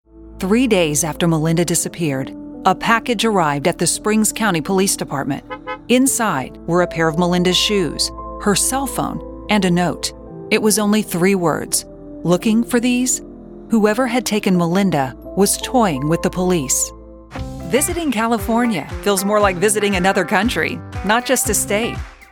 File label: Narration